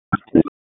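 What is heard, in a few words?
Raleigh Police Special Operations Tactical, p25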